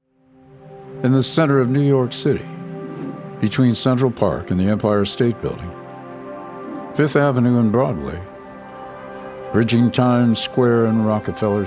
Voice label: Corporate; 20-30s; US; Young, friendly and warm

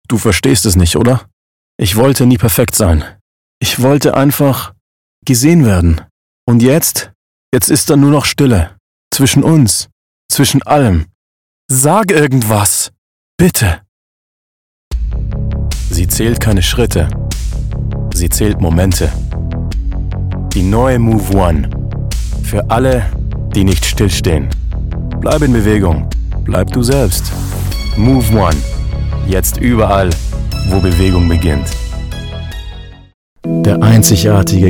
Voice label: German; Male; Teens-30s

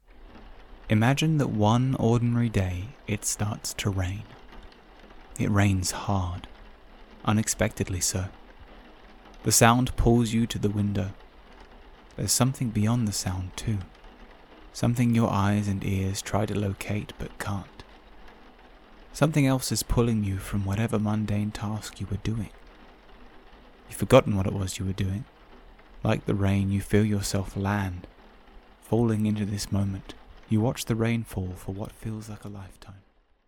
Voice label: Audiobook

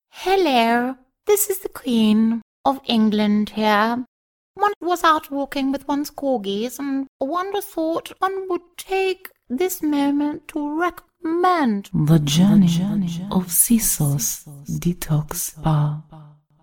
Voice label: Animation

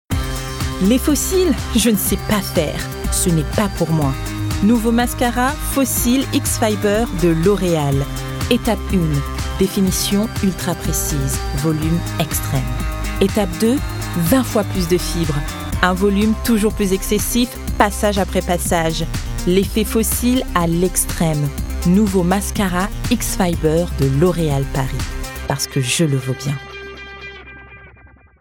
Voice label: French

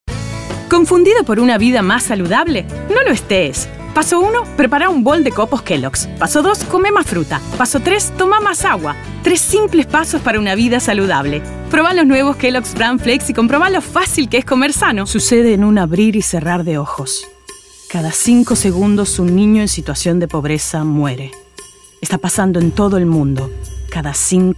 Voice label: Spanish-Latin Argentina, Female; Studio, 30s-50s

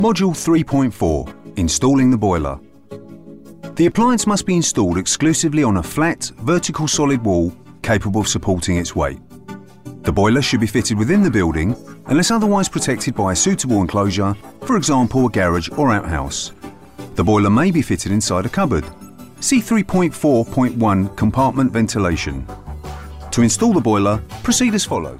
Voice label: Corporate; regional accents

